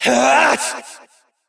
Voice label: Frustration; Evil Twin B; Warframe; Entrati Doppelganger; Battle Barks